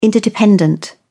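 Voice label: "interdependent", English, British English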